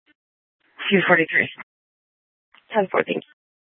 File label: Sheriff, scanner; Maricopa County (P25) - Thompson Peak; Shrf Southeast